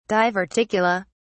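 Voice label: "diverticula", British English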